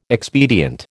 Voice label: "expedient", American